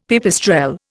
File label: "pipistrelle", Indian; Dictionary